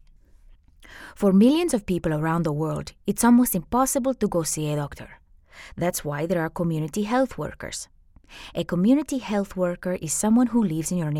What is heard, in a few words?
Latin accent